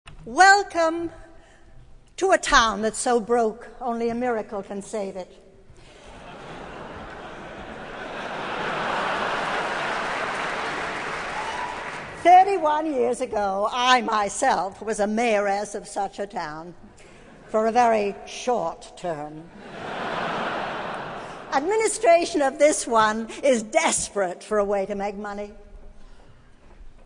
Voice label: Narrator's Introduction; Anyone Can Whistle-Live at Carnegie Hall